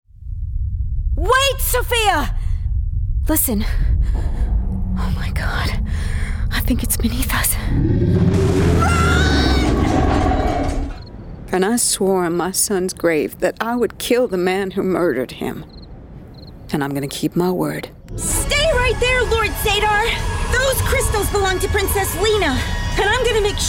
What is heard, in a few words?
us accent